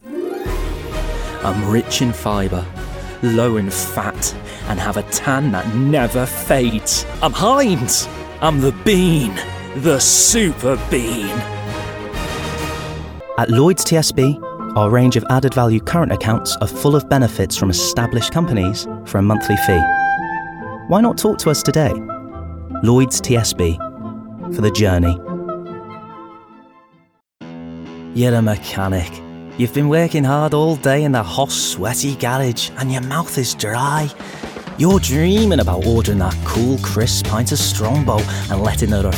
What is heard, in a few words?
Commercial